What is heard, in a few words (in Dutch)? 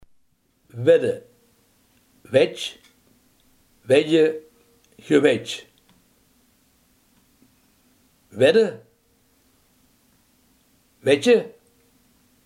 "wedden", limburgse